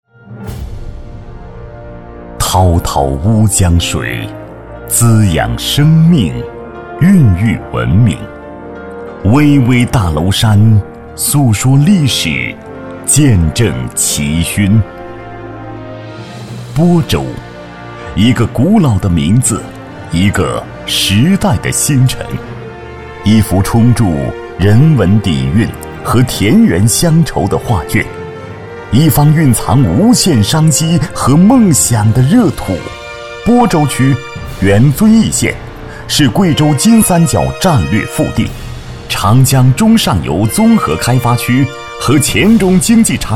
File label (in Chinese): 男国 宣传片 招商 厚重; 播州招商